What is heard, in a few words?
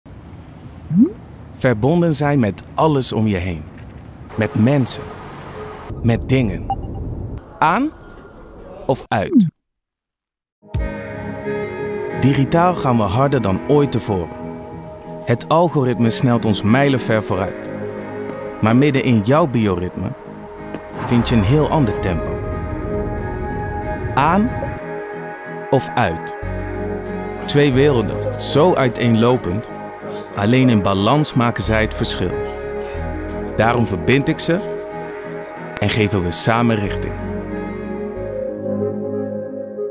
NL; Sweco